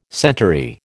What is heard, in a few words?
"centaury", American